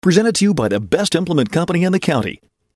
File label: Professional Phone Greeting voice over; Best in County